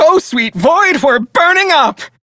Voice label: Crew See Fire, Male; Dialog; Warframe; Railjack Crew; Perrin Sequence